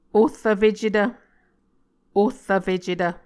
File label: "ohrvfecetv", Muscogee